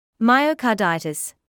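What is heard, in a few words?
"myocarditis", British English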